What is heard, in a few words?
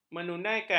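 "menunaikan", Malay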